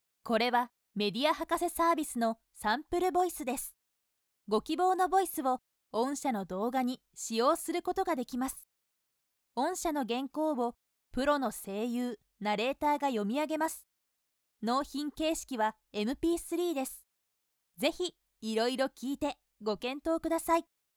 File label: formal, narration sample voice women